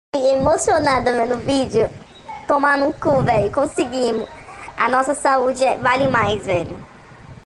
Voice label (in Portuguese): Áudio Distorcido, ATENTO